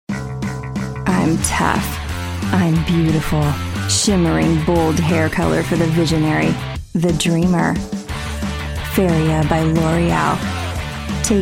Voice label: commercial